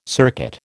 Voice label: "circuit", American